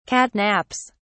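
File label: "catnaps", British English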